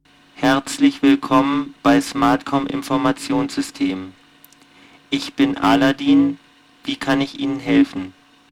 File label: Sine slow; SmartKom; Prototypen Stimme